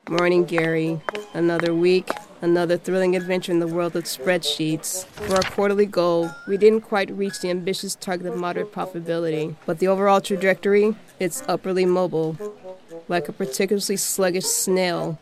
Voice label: A Bored Secretary